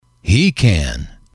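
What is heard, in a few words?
"he can", English, male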